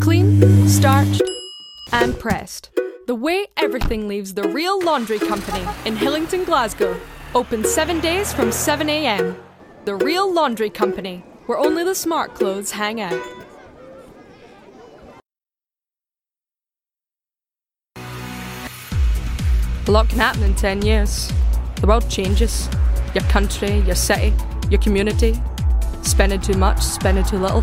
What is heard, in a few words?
Commercial; character